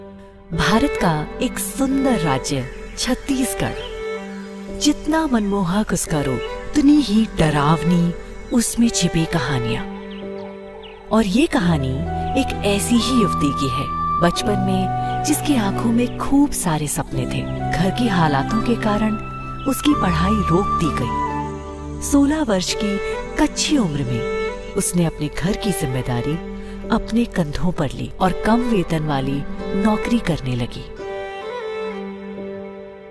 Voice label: Hindi Documentary